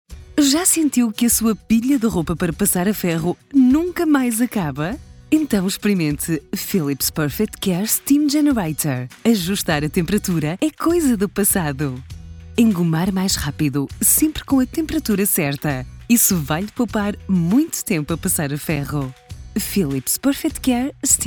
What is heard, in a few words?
female portuguese comercial; ideasonora